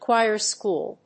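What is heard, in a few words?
"choir school", English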